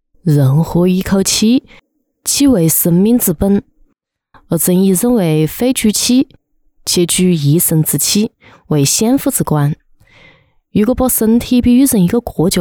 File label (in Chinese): 湖南方言 女 湖南话; 方言配音; 人活一口气